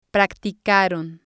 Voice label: "practicaron", spanish